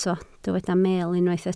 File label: "meel", Welsh